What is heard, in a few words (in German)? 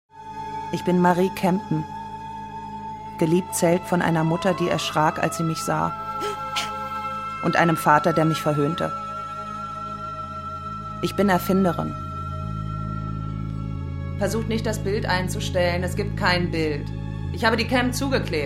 Monolog